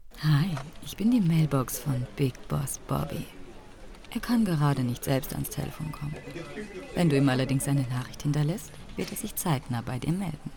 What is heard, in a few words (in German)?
Mailboxansage mit Hintergrundgeräuschen